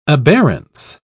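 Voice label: "aberrance", British English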